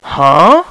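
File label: "huh", male; VOICE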